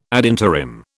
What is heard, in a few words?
"ad interim", British